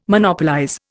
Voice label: "Monopolize", Indian

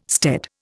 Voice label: "stead", Indian

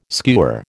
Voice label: "skewer", American

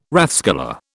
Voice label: "rathskeller", British

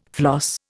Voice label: "floss", Indian

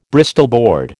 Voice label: "Bristol board", American

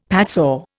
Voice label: "padsaw", Indian; Dictionary

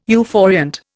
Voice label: "euphoriant", Indian

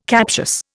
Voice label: "captious", Indian

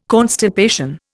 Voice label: "constipation", Indian

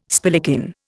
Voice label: "spillikin", Indian

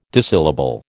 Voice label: "dissyllable", American

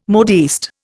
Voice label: "modiste", Indian

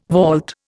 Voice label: "vault", Indian

